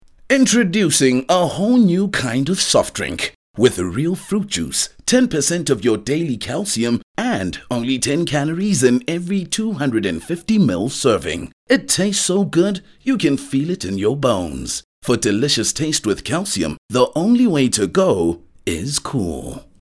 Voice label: English Conversational; OSM Talent

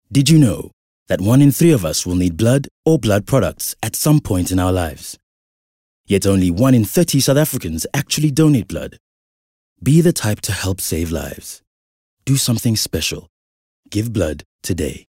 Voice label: English Softsell; OSM Talent

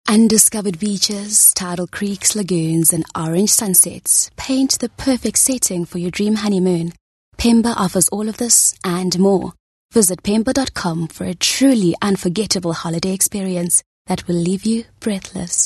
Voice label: English Intimate

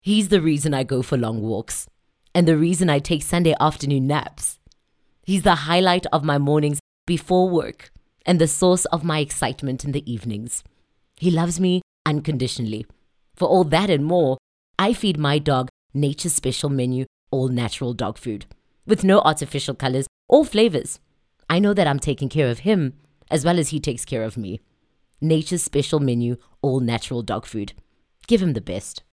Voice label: English conversational; OSM Talent

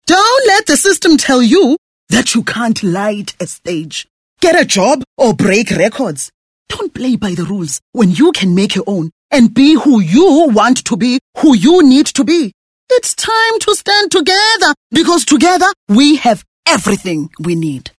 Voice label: English Dramatic

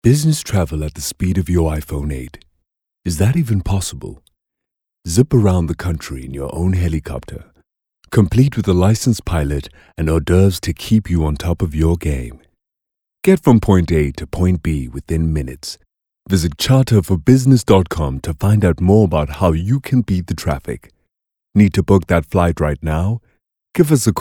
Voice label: English soft sell; OSM Talent

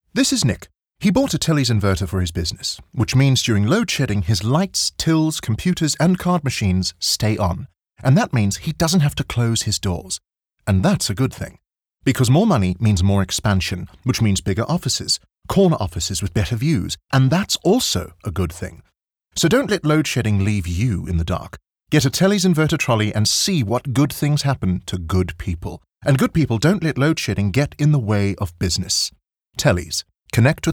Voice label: English Conversational Sell; OSM Talent

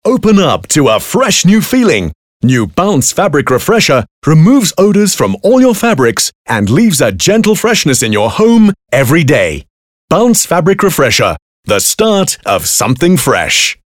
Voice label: English Bright

